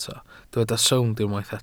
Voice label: "sownd", Welsh